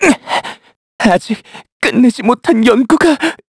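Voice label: Vox Dead, Korean; Evan; kingsraid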